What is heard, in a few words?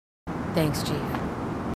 marines female, marine tough